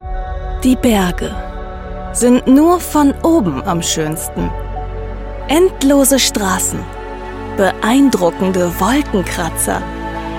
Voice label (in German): Werbung Drohne; versiert